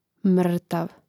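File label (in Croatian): "mrtav", Hrvatski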